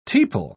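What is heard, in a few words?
"tepal", British English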